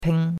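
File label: "ping1" Mandarin Chinese syllable, first tone; Cours de Chinois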